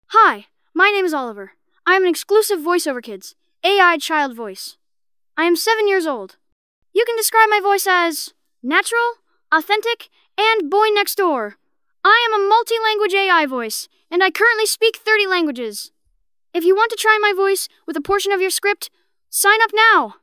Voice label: boy7; voiceoverkids